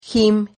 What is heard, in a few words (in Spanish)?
"gim", Silabas